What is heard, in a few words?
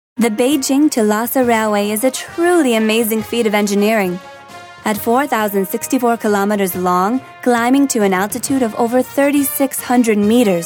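American, narration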